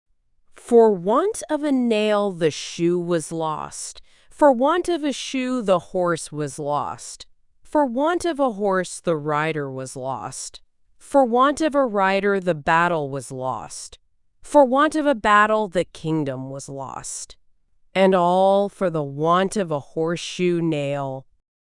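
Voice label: female us; For Want of a Nail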